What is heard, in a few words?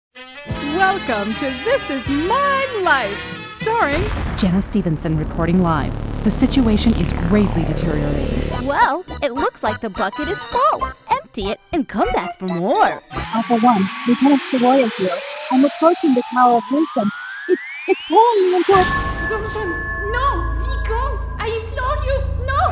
character